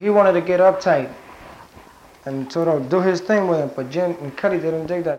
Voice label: Conversation with 17 year old male New York New York, american english dialect